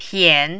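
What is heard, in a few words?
"pian", Mandarin, third tone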